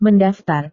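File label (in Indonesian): "mendaftar", Indonesian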